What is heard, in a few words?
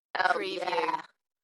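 UK female, DJ vocals; Dalekness; beanstalk-audio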